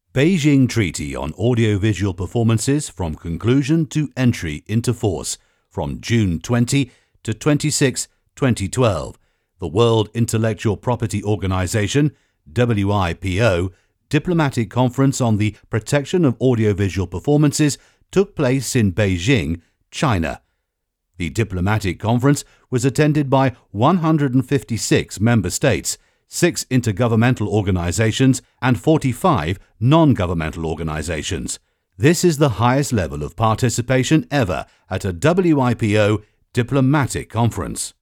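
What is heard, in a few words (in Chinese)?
外籍英语英式会议自然介绍; 世界知识产权组织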